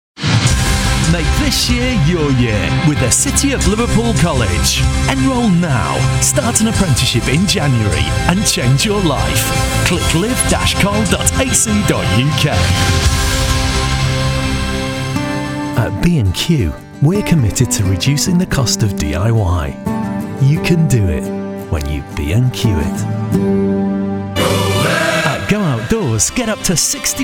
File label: Commercial Showreel